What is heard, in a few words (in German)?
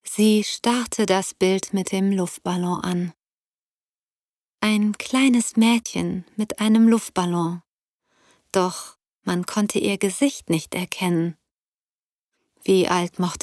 Hörbuch, sprecherin